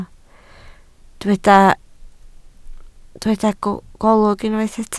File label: "golwg", Welsh